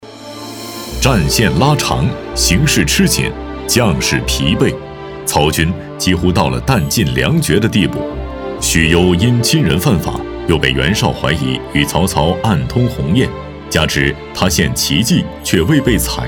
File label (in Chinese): 专题旁白解说